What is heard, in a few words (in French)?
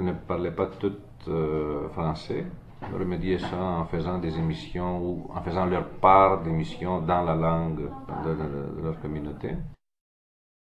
Voix femmes; Émission multilingue Voix de femmes à CINQ